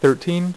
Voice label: "6", poker; iPoker 2000